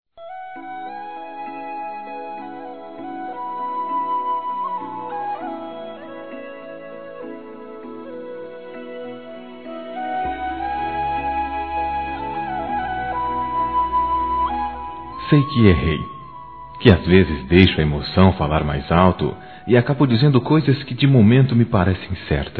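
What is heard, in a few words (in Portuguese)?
DESCULPA ROMANTICA SUAVE, masculino; Desculpas; Telemensagens 2017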